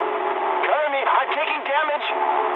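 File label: English aircraft damaged mood med; War Thunder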